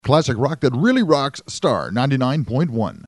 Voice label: sweeper